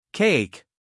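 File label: "cake", American English, male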